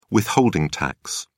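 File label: "withholding tax", English, British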